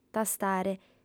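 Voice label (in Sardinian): "tastare", female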